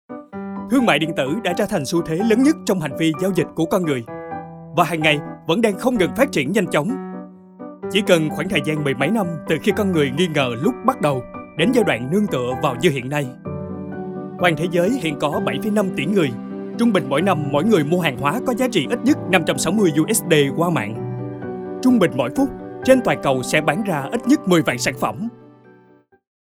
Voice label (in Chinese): 越南语男 外语 小语种